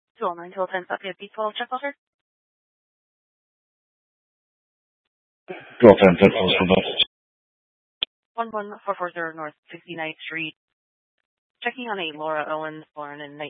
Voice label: Scottsdale Police, Simulcast